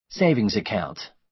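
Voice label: "savings account", American English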